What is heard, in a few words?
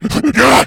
pain; nuke; redead